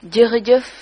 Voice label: thanks, wolof

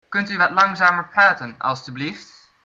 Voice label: slowly, dutch

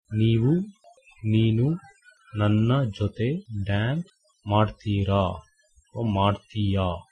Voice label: dance, Kannada

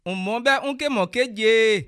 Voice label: dance, Bassa

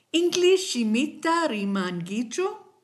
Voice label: do you speak english, kichwa

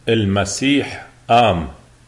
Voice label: easter, lebanese arabic

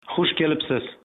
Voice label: welcome, uzbek

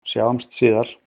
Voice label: goodbye, icelandic

